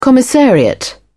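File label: "commissariat", English, British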